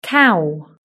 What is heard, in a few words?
"cow", british english